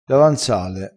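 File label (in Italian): "davanzale", vocabolario dialettale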